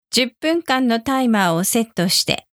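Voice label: alarm Set 10 Minute Timer, japanese alexa commands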